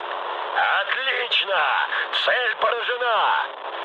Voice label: bomb success, mood low, speech ru; War Thunder

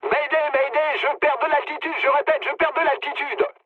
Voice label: aircraft destroyed mood med, speech fr; War Thunder

